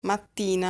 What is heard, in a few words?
"Mattina", Italian